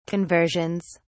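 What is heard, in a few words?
"conversions", British English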